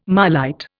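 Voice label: "marlite", Indian English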